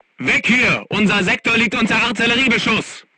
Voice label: voice message art shooting warning, crew tanks german